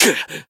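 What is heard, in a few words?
Voice damaged japanese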